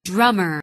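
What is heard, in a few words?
"drummer", English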